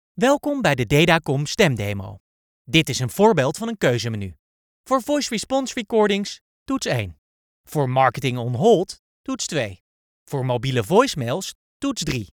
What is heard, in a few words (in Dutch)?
Voice over Nederlandse man